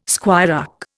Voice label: "squirearch", Indian

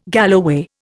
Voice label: "galloway", Indian

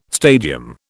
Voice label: "stadium", British

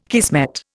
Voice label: "kismet", Indian

